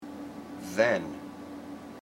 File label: "then", IPA